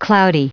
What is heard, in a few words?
cloudy, en-us